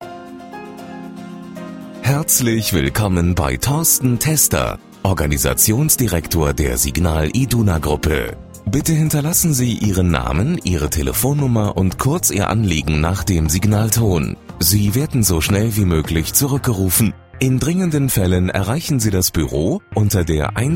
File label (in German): ansage